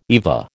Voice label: "EVA", American